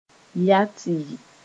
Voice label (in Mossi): "ya tɩ", moore